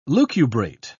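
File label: "lucubrate", British English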